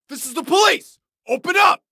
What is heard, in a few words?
Human Voice Loud Police This Is The Police Open Up